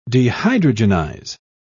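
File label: "dehydrogenize", British English, dictionary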